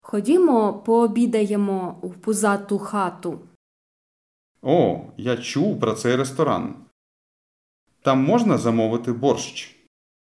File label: Dialogue Slow